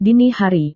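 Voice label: "dini hari", Indonesian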